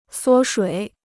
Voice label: "缩水", Chinese